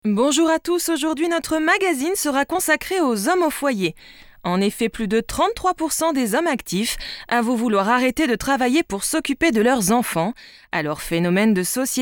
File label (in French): Voix spé Chroniqueuse